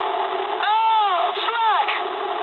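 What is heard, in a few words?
damaged anti-aircraft mood medium, speech English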